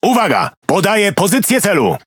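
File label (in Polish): Speech Quick Commands Enemy Ship, Polish; World Of Warships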